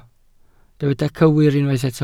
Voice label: "cywir", Welsh

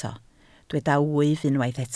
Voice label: "wyf", Welsh

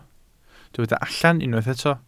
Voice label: "allan", Welsh

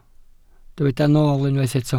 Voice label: "nool", Welsh

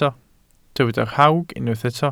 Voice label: "rhawg", Welsh

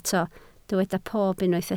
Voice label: "pob", Welsh

